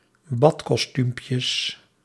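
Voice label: "badkostuumpjes", Dutch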